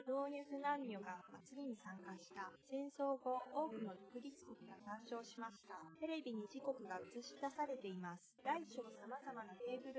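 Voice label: female instantaneous source; SiSEC10; underdetermined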